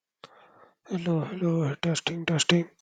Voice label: voice message; whatsapp